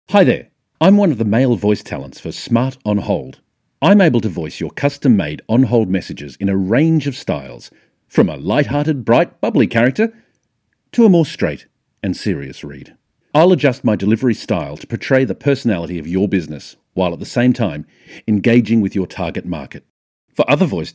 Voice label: MALE